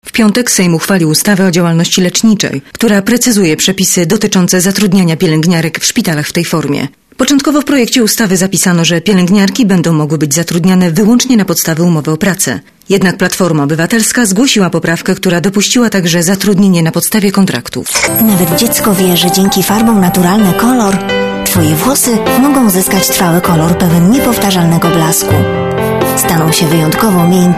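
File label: voice female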